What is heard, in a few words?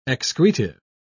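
"excretive", British English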